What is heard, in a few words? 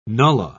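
"nullah", British English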